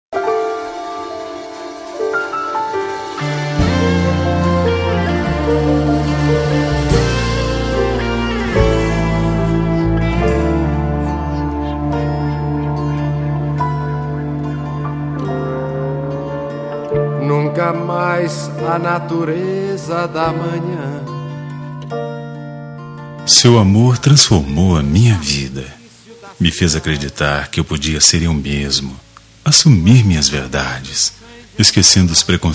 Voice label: Namorados pra Namoro GLS, M

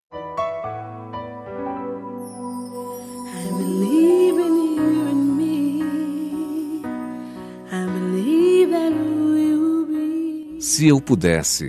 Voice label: Namorados pra Namorada Que Ta Distante, M

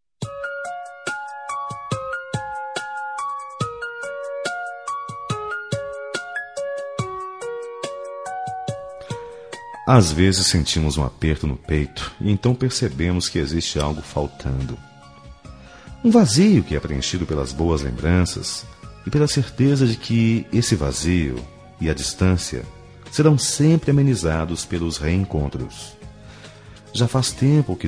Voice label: Amizade Com Saudades, M

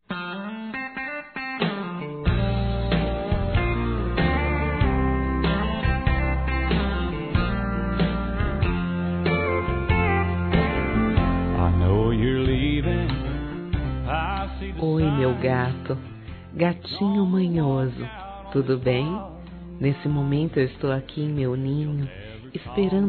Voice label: Namorados Com Gozação, F

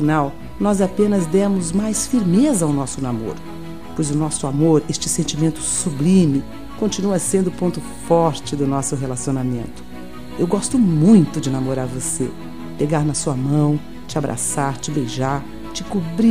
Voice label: Namorados pra Noivo F

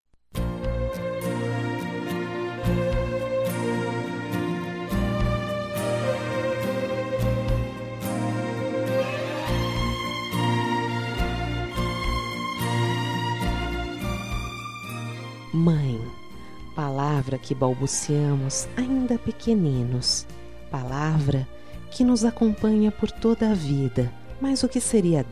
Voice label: Dia das Maes pra Filha Que E Mae, F

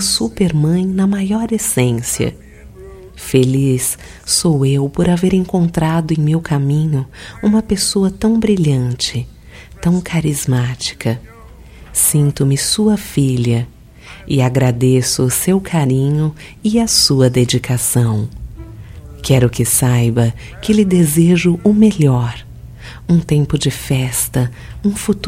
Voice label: Dia das Maes pra Sogra, F

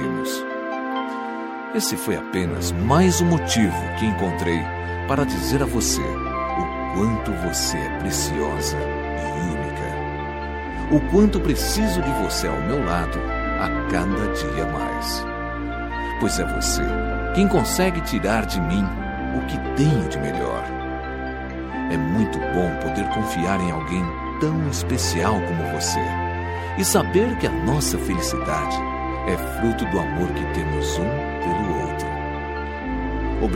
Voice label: Feliz Natal Romantico

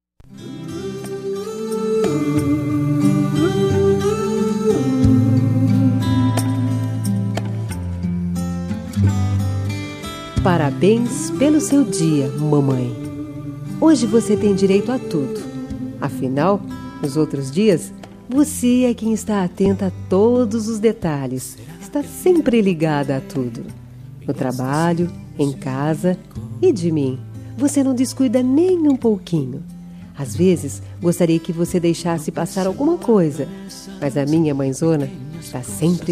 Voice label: Dia das Maes, F

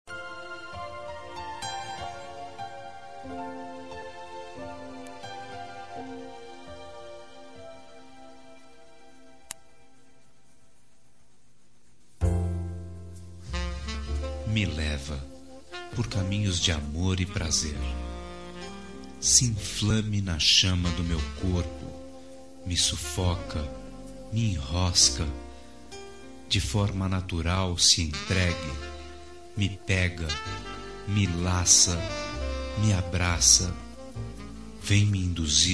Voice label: Romântica Picante, M

